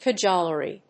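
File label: "cajolery", English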